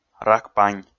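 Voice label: "ракпань", Komi, male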